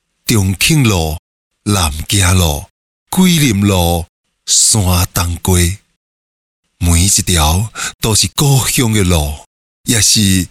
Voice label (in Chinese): 闽南语 男 闽南语; 方言配音; 故乡